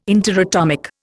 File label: "interatomic", Indian